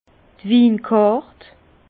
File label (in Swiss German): "winkart", Bas Rhin; vin; carte des vins